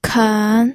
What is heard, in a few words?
"ken3", pinyin